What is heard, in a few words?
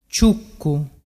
"ciuccu", dialettu